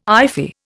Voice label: "iffy", Indian